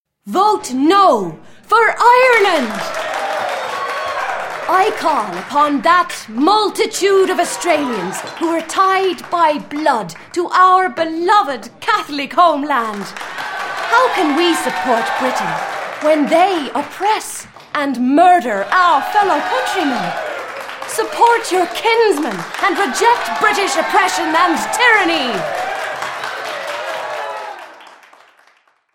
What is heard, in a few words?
catholic irish woman